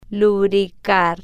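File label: "lubricar", slow, spanish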